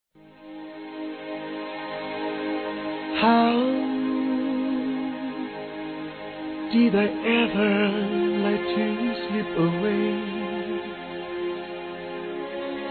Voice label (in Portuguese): ROMANTICA POESIA F; Telemensagens; Românticas